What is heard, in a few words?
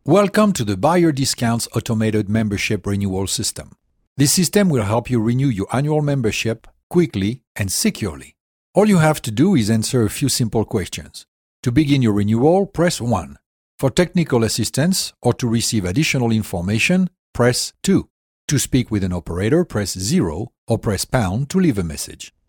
English secondary